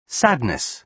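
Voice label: "sadness", British English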